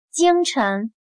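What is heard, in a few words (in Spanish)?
"京城", chino mandarin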